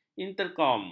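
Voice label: "interkom", Malay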